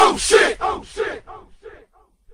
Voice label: oh shit echo